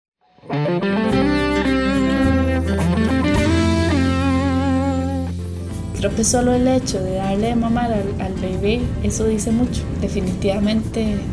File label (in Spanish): cuña informativa